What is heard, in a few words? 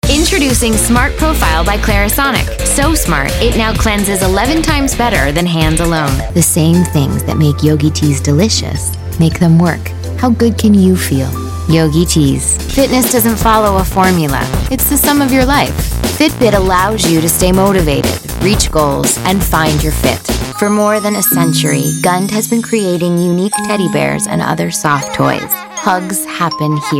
Commercial